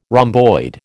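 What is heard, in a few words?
"rhomboid", American English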